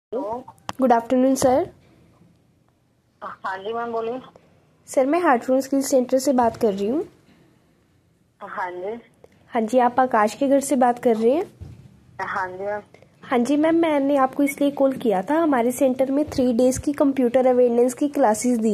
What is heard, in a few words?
call recording